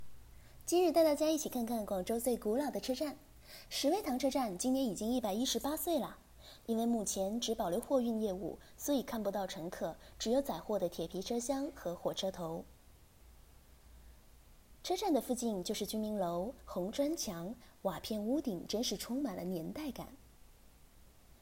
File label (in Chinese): 新媒体 广告片